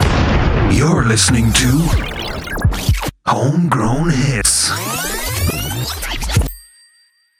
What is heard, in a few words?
sweeper